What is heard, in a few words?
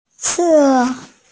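"che1", Mandarin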